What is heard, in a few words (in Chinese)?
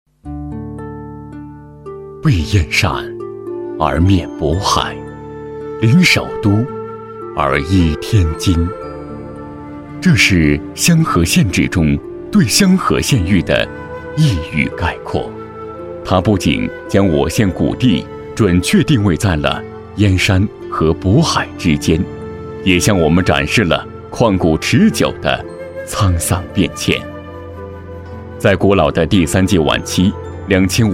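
国语 男声 专题片 沉稳大气 大气浑厚磁性 沉稳; 曙光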